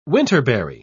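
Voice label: "winterberry", British English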